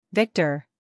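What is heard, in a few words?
"Victor", American English; sounds-of-american-english; everyone-can-use-english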